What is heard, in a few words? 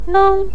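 "neng" neutral tone, Mandarin Chinese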